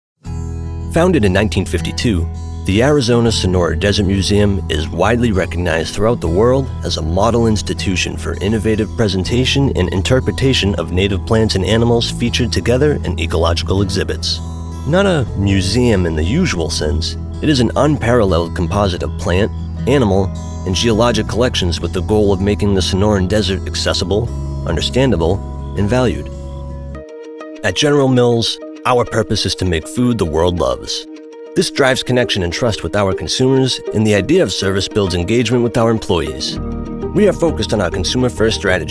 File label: Explainer